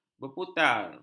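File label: "berputar", Malay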